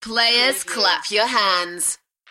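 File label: UK female, DJ vocals; beanstalk-audio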